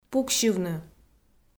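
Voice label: "пуксьывны", Komi, female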